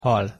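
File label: "hal", Hungarian pronunciation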